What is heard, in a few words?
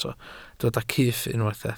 "cyff", Welsh